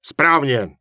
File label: Human Male Official NPC Greeting; wowpreklad; czwow